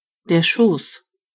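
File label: lap, German voices words; gcompris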